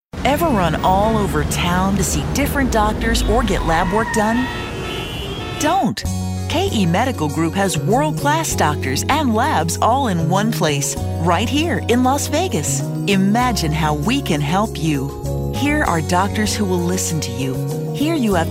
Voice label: Soft Sell